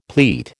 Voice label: "pleat", American

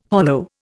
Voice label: "hollow", Indian

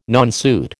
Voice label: "nonsuit", American

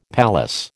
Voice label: "palace", American

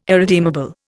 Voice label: "irredeemable", Indian

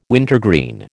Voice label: "wintergreen", American

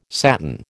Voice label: "satin", American

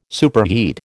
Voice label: "superheat", American

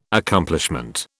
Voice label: "Accomplishment", British

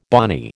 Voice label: "bonny", American

